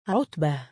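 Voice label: "3utbah" male, Arabic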